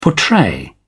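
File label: "portray", British English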